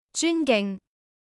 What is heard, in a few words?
"zyun1 ging3", cantonese